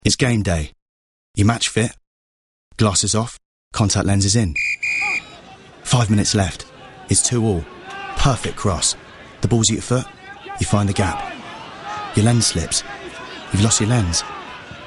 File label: Radio Commercial; Global